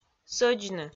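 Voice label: "сӧдзны", Komi, female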